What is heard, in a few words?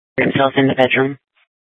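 Police Department North Dispatch, scanner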